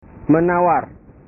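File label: "menawar", Indonesian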